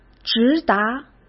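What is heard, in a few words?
"直达", Mandarin Chinese; chinese flashcards; hsk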